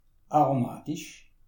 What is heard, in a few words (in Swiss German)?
"aromatisch", alsace